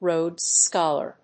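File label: "Rhodes Scholar", English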